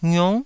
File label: "ngiung9", taiwanese syllables